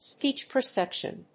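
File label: "speech perception", English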